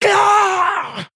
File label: Female Orc Death